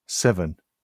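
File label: "seven", British English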